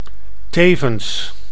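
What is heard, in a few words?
"tevens", Dutch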